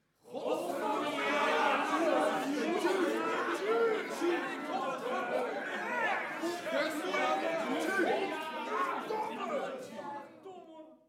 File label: Cursing In Flemish